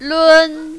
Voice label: "lun1", mandarin, female